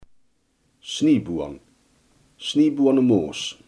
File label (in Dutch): "snijboon", Limburgs